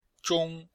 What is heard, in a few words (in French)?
"zhong1", chinois; Cours-de-Chinois